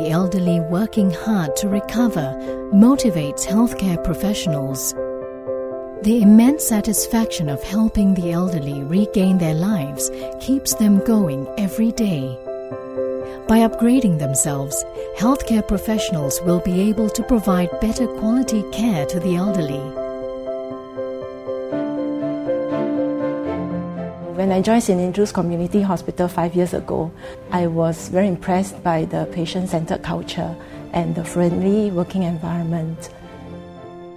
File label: Asian English, English Singaporean Female; Agency for Integrated Care Video